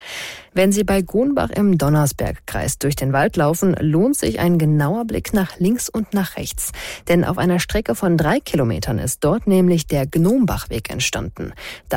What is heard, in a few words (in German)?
radionachrichten